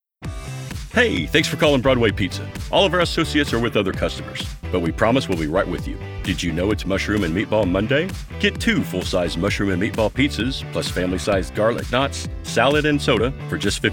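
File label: Telephony Restaurant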